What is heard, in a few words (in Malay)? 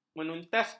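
"menuntaskan", Malay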